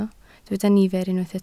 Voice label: "nifer", Welsh

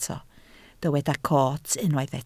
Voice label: "coot", Welsh

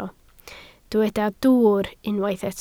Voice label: "dwwr", Welsh

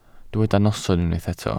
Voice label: "noson", Welsh

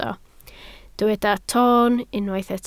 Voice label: "toon", Welsh

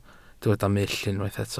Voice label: "mull", Welsh

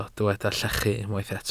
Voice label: "llechu", Welsh

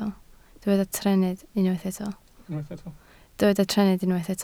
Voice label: "trennydd", Welsh

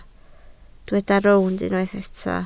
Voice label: "rownd", Welsh

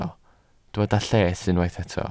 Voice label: "lles", Welsh

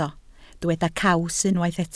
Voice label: "caws", Welsh

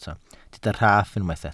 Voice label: "rhaff", Welsh

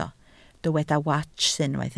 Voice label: "wats", Welsh

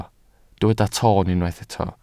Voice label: "toon", Welsh

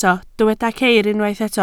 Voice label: "ceir", Welsh

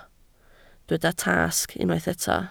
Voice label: "tasg", Welsh

